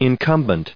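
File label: "incumbent", US English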